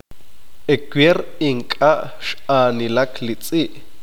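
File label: "ayer el perro no corrió", Q'eqchi'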